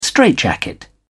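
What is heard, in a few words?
"straitjacket", British English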